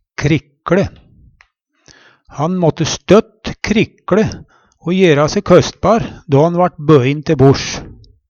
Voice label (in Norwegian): krikLe, Numedalsmål